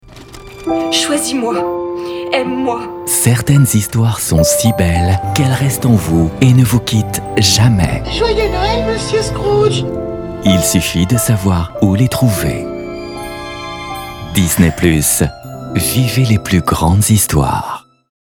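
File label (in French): emouvant attendrissant, Voix off documentaire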